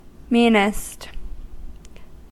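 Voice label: "meanest", American English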